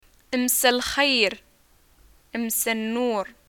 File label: greeting evening, arabic